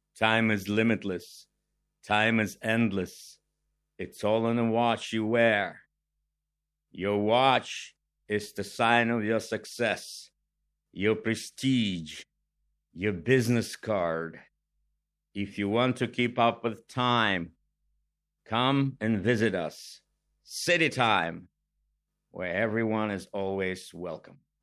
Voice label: Male Jingle; City Time